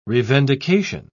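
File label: "revendication", British English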